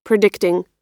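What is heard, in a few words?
"predicting", American English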